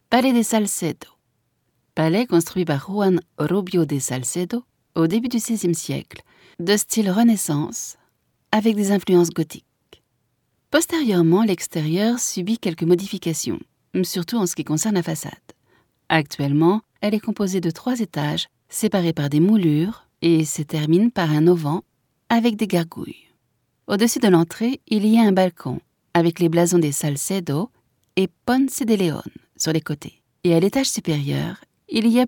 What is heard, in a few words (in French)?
audioguia, frances; audioguia baeza